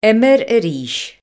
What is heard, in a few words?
"play again", ulster; jetpackLearningPlatform; story-arranger